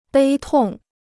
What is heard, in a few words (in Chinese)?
"悲痛", Chinese